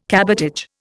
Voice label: "cabotage", Indian